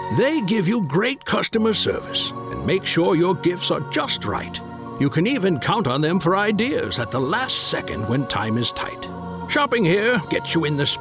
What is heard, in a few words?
Holiday Radio English; Retail Assoc Of Mass